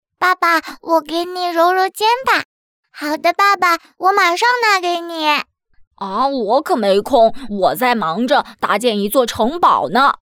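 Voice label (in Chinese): 角色塑造女童两男童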